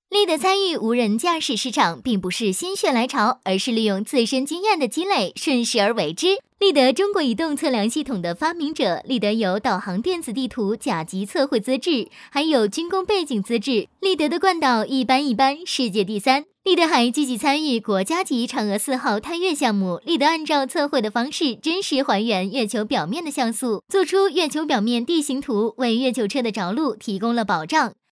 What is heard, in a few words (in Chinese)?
女 快速活力; 飞碟说